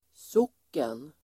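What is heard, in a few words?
"socken", Swedish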